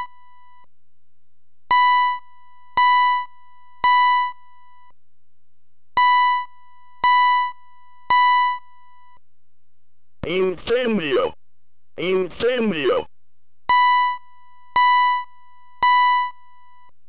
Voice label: Fire Spanish; ELK-110